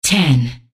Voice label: "ten", announcer; hl2dm; unreal